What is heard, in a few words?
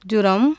fever, tamil; JIPA